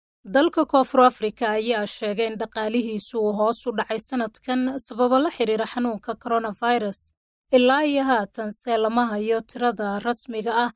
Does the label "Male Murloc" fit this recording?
No